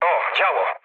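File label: damaged aa, mood low, Polish speech; War Thunder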